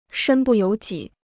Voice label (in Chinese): "身不由己", Chinese